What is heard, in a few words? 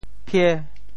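"phie5", Teochew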